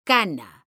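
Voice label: "cana", Spanish, female; mekanta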